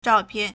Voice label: "zhao1pian4", Chinese